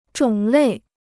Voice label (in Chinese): "种类", Chinese